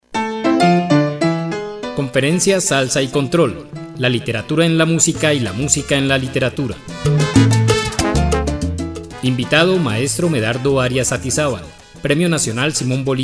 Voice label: promo; Conferencia Salsa y control; La literatura en la músicay la música en la literatura